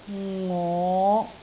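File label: "ngo5", Cantonese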